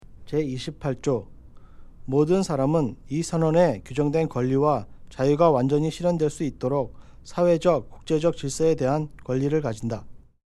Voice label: Korean

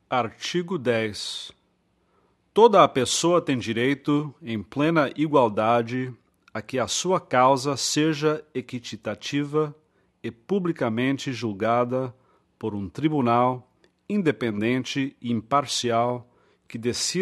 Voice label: Portuguese

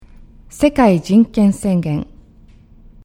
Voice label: Japanese title

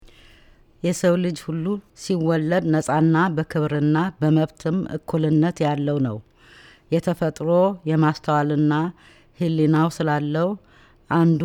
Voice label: Amharic

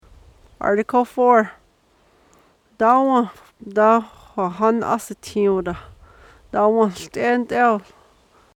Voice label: Apache

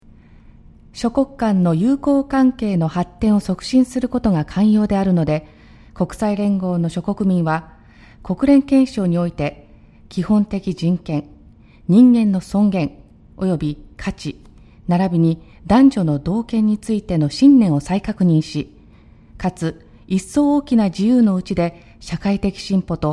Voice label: Japanese

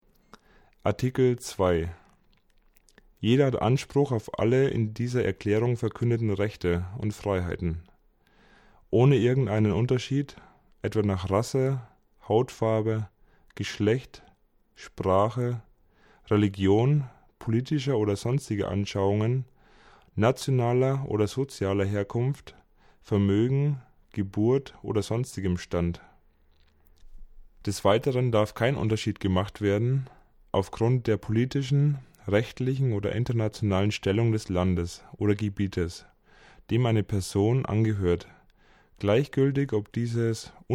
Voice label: German

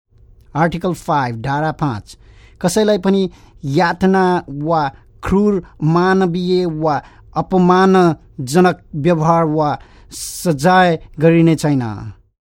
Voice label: Nepali

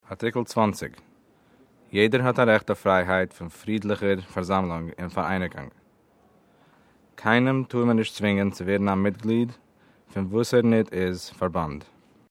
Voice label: Yiddish

